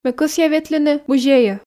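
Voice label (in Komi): ме кӧсъя ветлыны музейӧ, Komi, female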